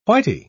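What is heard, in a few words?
"whity", British English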